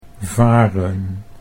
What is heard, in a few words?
"varen", Dutch